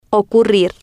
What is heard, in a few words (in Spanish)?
"ocurrir" Spanish